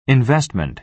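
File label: "investment", American English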